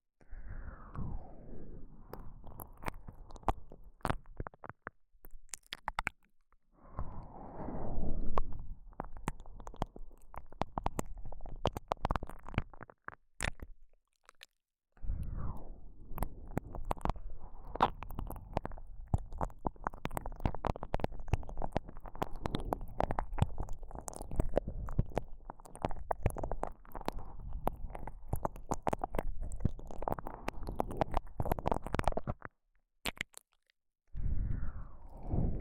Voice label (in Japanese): KU100 右耳 30分に1回好き, ASMR